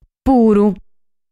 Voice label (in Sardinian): "Puru", sardu